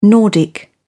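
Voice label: "nordic", British English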